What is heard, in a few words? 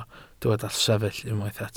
"sefyll", welsh